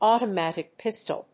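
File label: "automatic pistol", English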